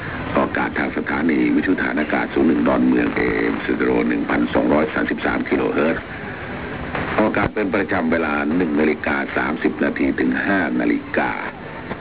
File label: Station identification; 1233 Bangkok; thailand